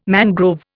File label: "mangrove", Indian